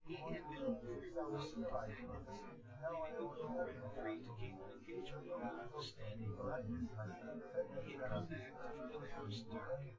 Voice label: male synth conv; SiSEC10; underdetermined

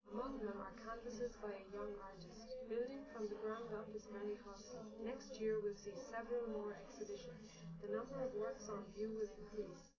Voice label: female liverec; SiSEC11; underdetermined

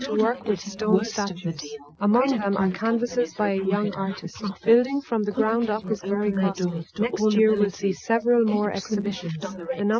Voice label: female inst src; SiSEC11; underdetermined

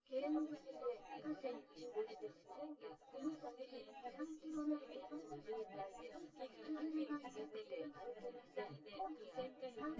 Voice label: female synth conv sim; SiSEC10; underdetermined

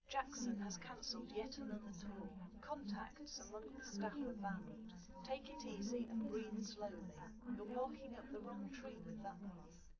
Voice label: female liverec; underdetermined; SiSEC11

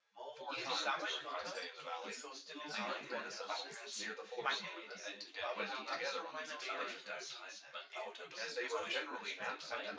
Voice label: male4 synthconv 250ms 5cm src

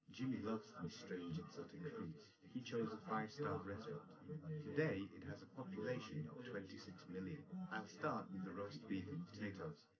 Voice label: male, live rec

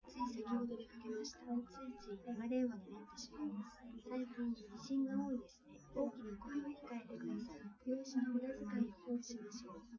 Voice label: female liverec; SiSEC11; underdetermined

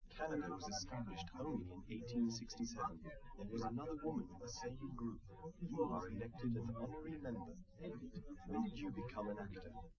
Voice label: male, live rec; SiSEC11; underdetermined

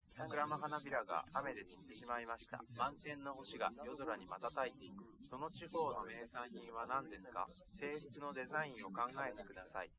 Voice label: male, liverec, src

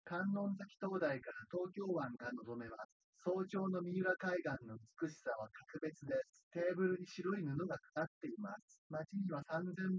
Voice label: male3 synthconv; SiSEC08; SiSEC underdetermined

